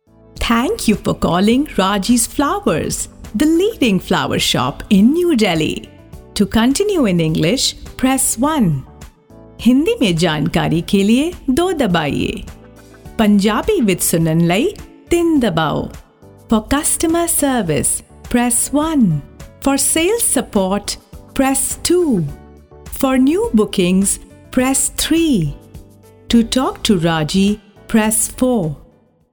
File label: IVR English